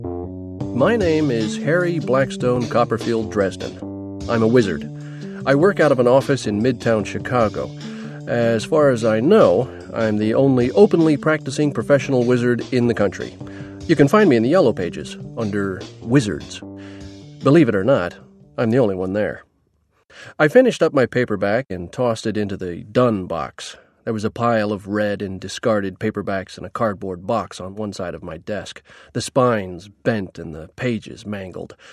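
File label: drama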